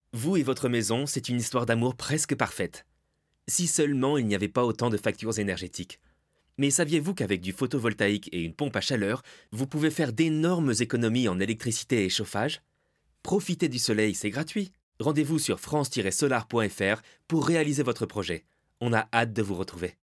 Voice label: male french solar commercial; france